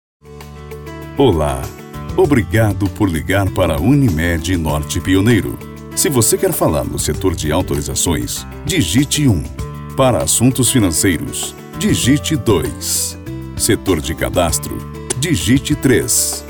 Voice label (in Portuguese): ura locutor; gravacao de ura